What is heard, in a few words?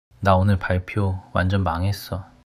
sadness